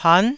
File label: "pan9", Taiwanese